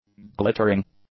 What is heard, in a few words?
"glittering", english; adjectives